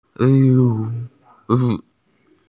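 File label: "panda", Mandarin; xiong2mao1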